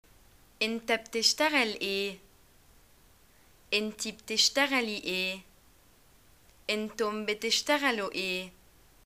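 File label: what do you do, arabic, Egyptian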